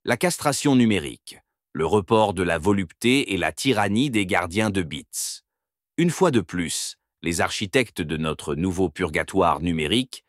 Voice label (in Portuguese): narracao, fr